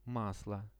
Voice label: "maslo", Russian; масло; Это вологодское масло.; products